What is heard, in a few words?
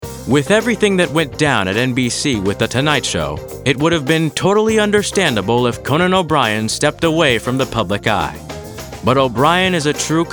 narration reel, Male